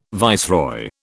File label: "viceroy", British